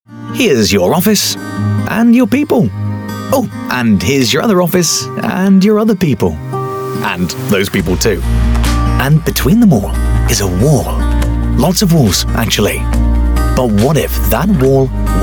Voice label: UK English Corporate

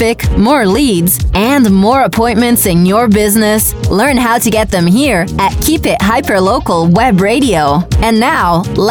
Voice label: Brazilian Portuguese Podcast Marketing, Female

